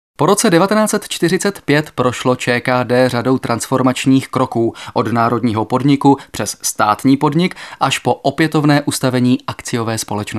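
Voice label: male Czech documentary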